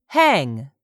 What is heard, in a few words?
"HANG", english; 3000 words